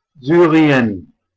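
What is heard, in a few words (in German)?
"Syrien", German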